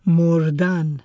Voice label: "mordan", Persian